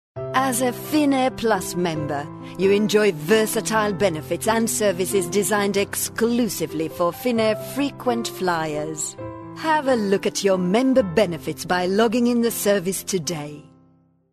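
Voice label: Finnish English, female, narration, accent of finland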